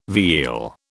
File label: "veal", British